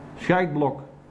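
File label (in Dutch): "Skachtblok", dialect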